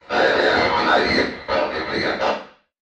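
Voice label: Room Security Comms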